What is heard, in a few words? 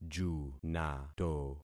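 "junato", concatenated syllables; Affixing experiment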